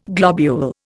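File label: "globulin", Indian; Dictionary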